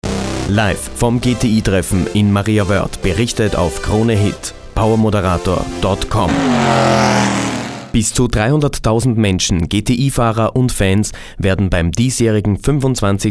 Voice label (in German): werbespot radio; kronehit; GTI